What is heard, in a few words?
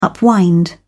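"upwind", British English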